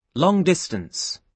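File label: "long distance", British English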